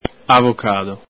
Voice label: "avocado", Veneto